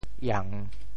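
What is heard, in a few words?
"iang3", Teochew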